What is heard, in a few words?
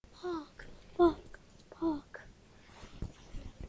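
"bog", spoken word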